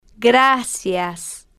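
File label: "gracias", Spanish, slow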